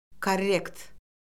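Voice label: "korrekt", Azerbaijani; Orfoqrafiya Lüğəti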